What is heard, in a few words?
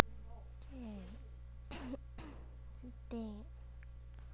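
"ding", spoken word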